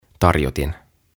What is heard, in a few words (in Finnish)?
"Tarjotin", "Tray"